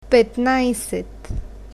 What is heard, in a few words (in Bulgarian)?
petnajset, bulgarian, numbers